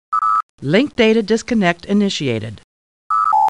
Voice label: Computer Voice; Star Trek